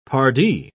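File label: "pardi", British English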